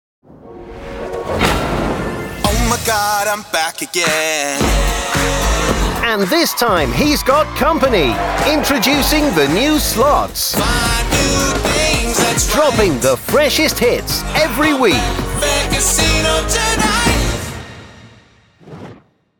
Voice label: male english casino slots commercial; betfair; new slots